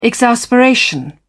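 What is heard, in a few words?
"exasperation", British English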